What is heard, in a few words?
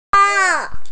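"re4", Mandarin Chinese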